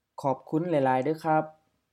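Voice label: Isaan Expressing thanks Dialogue A ขอบคุณ หลาย ๆ เด้อ คับ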